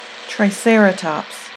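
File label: "triceratops", American English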